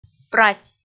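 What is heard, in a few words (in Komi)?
"прать" Komi, female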